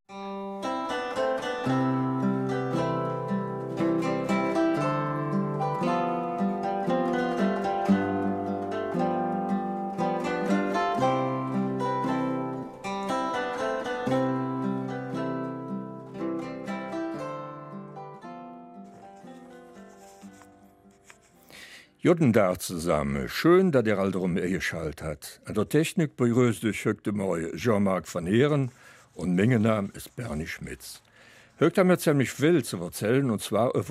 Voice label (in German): Eifeler Mundart; Eine Frage des Maßstabs; Der Modelleisenbahner